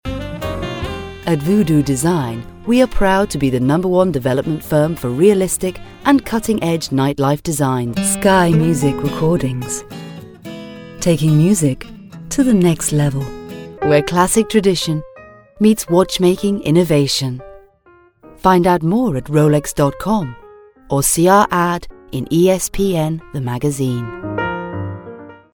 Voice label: COMMERCIALS